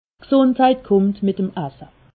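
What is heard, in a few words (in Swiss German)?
"gsundheit", Alsatian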